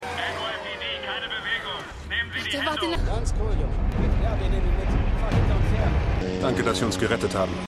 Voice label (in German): Polizisten Vater; Manifest